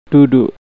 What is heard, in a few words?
"duduk", Indonesian; percakapan